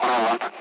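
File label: Speech Sound Russian Common Allied Flight; AirDefence; DCS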